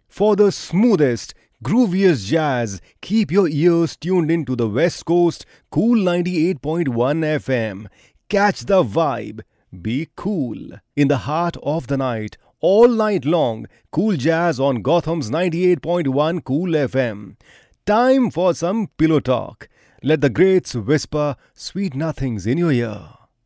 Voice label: male hindi voice over talent english